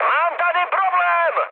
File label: aircraft damaged, speech cze, mood high